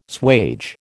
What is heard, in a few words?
"swage", American